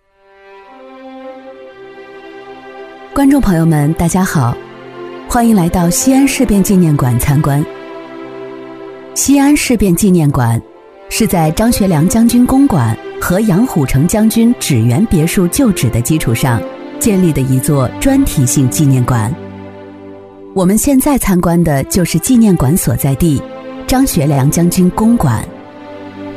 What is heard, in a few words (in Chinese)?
女, 专题历史; 西安事变纪念馆（介绍）; 温柔知性